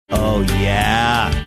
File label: drawn out oh yeah